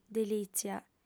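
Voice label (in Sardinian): "delìtzia", female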